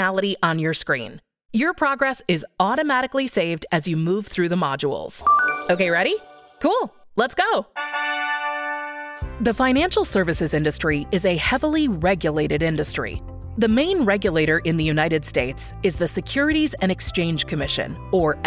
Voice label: E Learning, Young Adult - Millennials & Gen Z Voiceovers